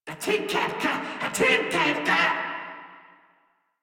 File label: Cover Me Crewmen en; Warframe; Corpus Crewmen; Crewman D